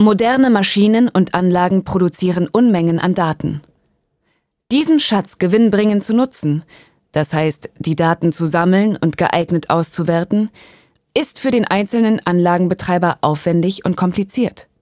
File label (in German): Werbung